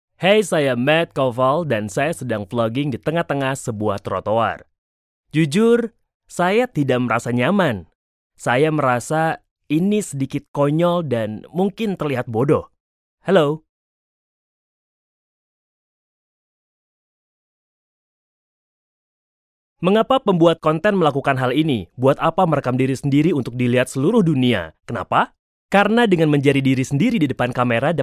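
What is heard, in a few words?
Indonesian, General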